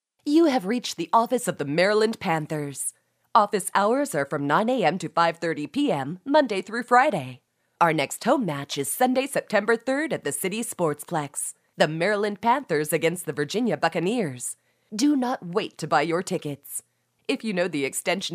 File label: High Energy